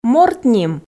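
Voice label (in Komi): "морт ним", Komi, female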